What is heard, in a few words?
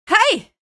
shock, female; video games; npc; source engine; episodic; episode 1